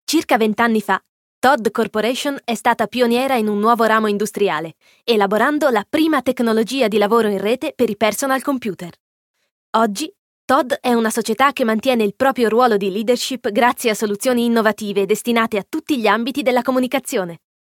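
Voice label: Italian corporate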